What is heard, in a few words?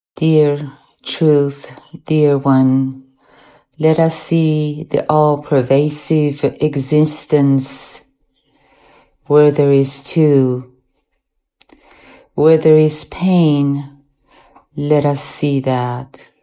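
Prayer English No music; Prayers; Divine Love; capcut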